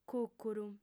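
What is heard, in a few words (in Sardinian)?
"cùcuru", female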